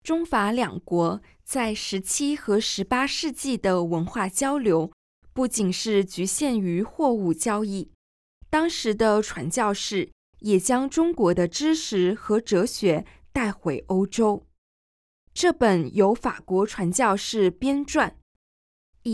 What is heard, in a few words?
Mandarin; 《中国哲学家孔子画传》; 钱德明（1718–1793年）; 伊西多尔 - 斯坦尼斯拉斯．赫尔曼（1743–1809年）; 约1782年; 紫禁城; 凡尔赛宫